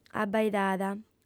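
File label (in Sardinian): "abbaidada", lingua sarda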